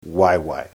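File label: "waewae", Māori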